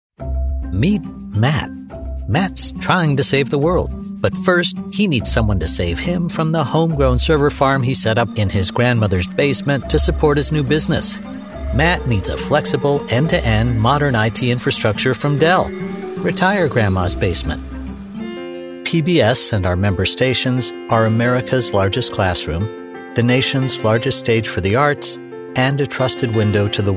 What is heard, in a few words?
Corporate Explainer